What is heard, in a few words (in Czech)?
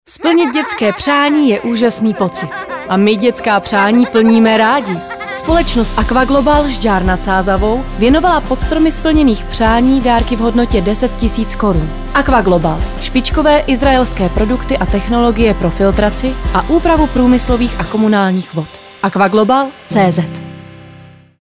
profesionalni zensky voiceover; dabing; aqua-global